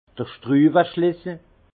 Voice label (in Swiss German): "schtruweschlissel", alsace; vin; clé à écrou